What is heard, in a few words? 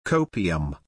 "copium" pronunciation